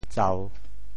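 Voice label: "tsau3", Teochew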